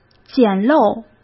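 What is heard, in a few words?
"简陋", Mandarin Chinese; hsk; chinese-flashcards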